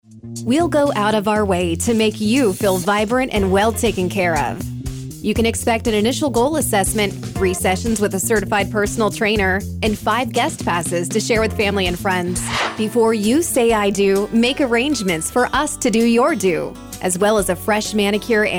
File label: female